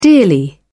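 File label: "dearly", British English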